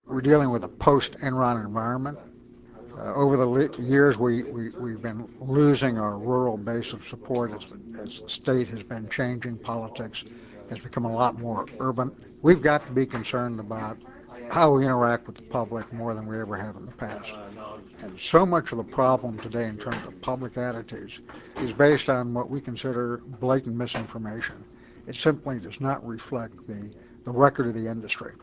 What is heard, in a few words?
french